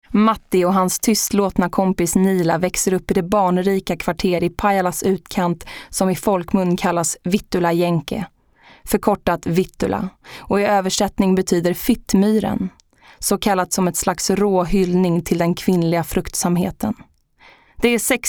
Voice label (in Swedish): svensk kvinna